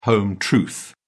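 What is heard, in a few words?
"home truth", British English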